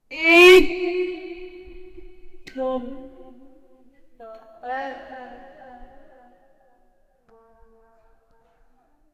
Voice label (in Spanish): ayuda debora sonido mujer, shout